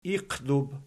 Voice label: "iqdub", Archi

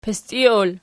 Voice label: "pistiol", Archi

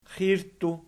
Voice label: "xirttu", Archi

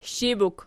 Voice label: "shibuk", Archi

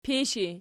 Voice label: "pishi", Archi

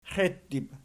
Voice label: "xeittib", Archi

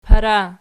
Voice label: "para", Archi

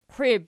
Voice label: "qwiib", Archi; qiwib

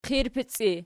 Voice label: "qirpic", Archi

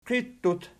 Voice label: "qiittut", Archi

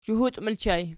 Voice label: "zhuhut", Archi

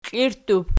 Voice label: "klirttub", Archi

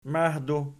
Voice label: "mahdu", Archi

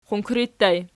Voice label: "qunkri", Archi

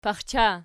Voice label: "paxcha", Archi

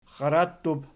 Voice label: "xxarattub", Archi

